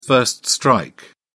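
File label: "first strike", British English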